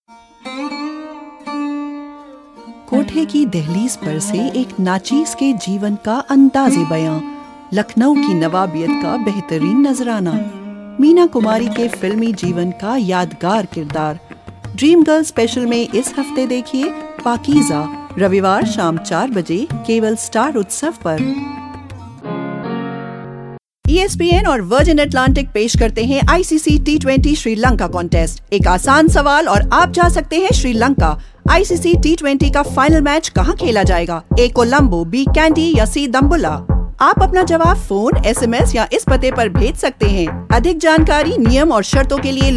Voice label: promos

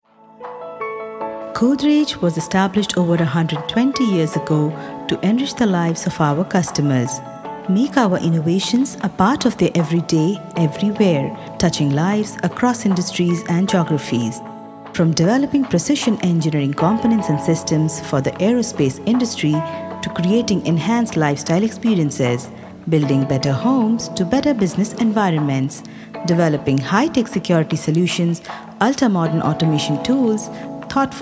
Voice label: eng; godrej

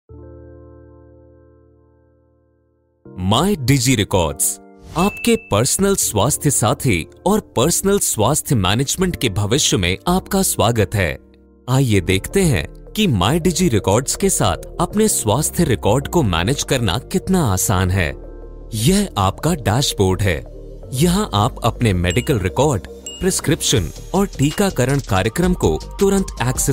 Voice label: Explanatory Hindi

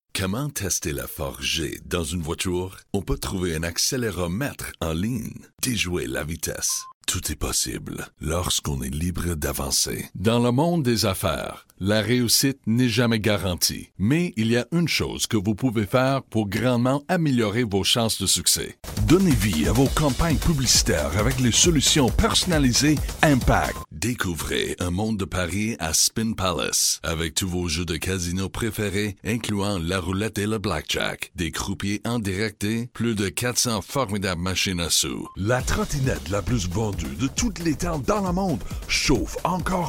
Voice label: french canadian

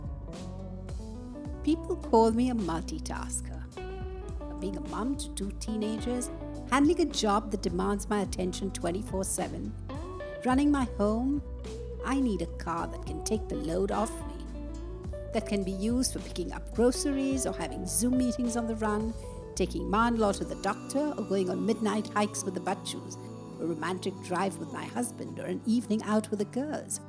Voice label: eng; tatanexon

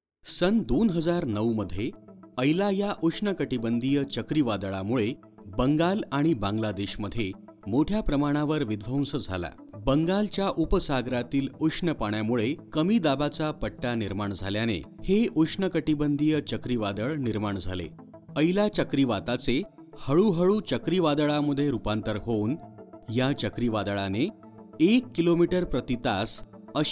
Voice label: E-learning, Marathi

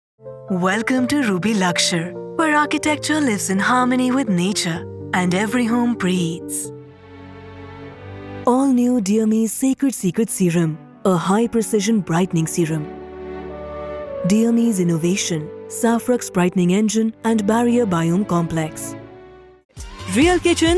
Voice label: English

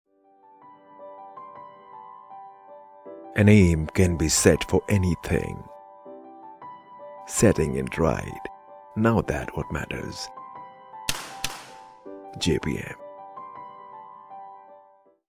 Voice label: ENGLISH TVC

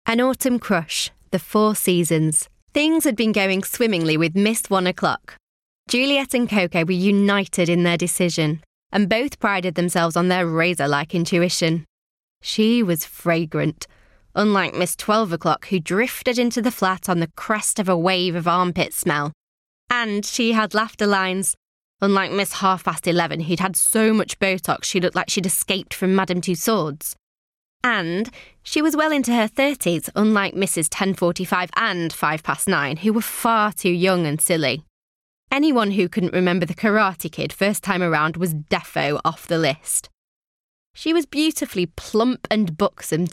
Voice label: audiobook

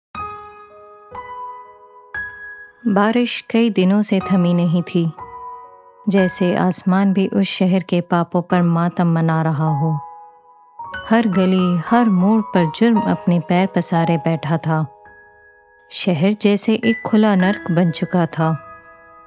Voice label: AudioBook Hindi, Female Voice

